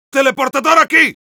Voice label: need teleporter, es; Heavy